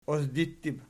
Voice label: "osdittib", Archi